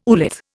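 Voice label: "oolith", Indian English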